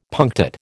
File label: "punctate", American